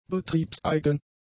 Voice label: "betriebseigen", German; adjectives

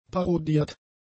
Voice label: "parodiert", German; adjectives

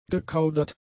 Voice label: "gekaudert", German; adjectives

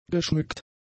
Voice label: "geschmückt", German; adjectives

